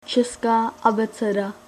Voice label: Czech abc